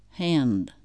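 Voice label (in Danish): amerikansk engelsk hand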